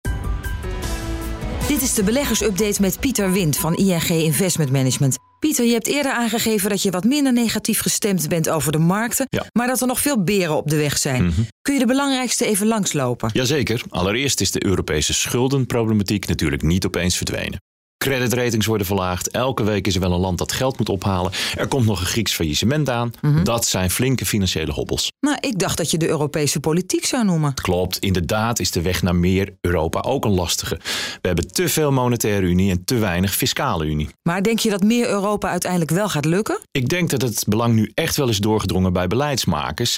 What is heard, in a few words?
female dutch banking commercial